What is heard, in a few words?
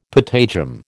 "patagium", American